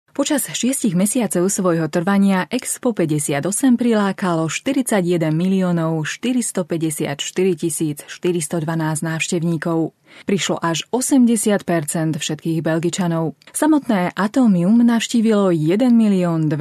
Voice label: Slovak narrative